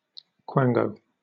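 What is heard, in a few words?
"quango", British English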